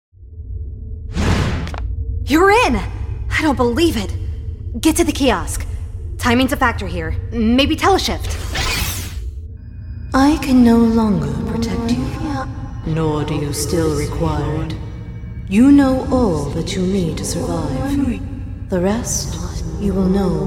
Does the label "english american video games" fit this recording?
Yes